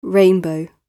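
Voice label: "rainbow", British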